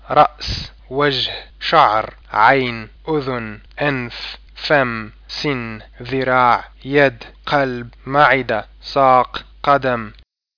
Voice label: arabic body